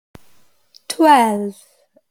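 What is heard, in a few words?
"twelve", English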